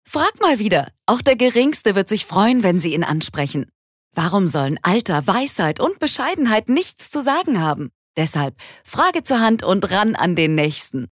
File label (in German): Werbung Dynamisch